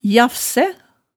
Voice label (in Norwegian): "jafsæ", hemsedalsmaalet